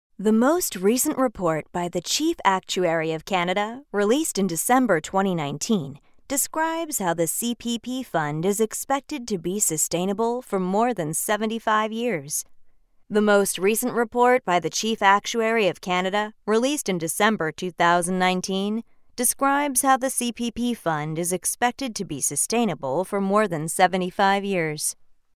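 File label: US English, female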